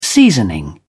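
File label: "seasoning", British English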